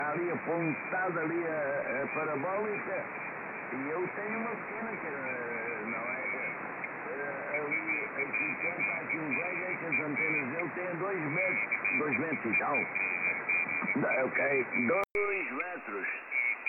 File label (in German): USB Amateurfunk; Belka